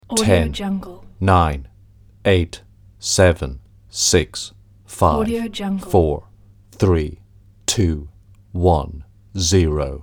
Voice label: male countdown 10 to zero